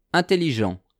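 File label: "intelligent", French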